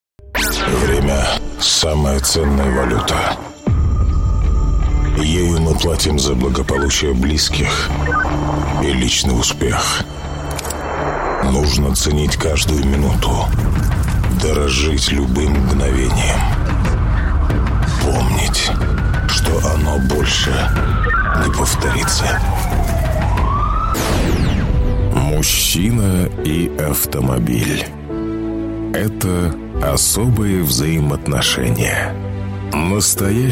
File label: Russian voiceover trailer epic sound